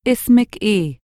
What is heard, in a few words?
"ismik eeh", Egyptian Arabic